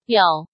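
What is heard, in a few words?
"You", Chinese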